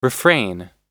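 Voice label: "refrain", American English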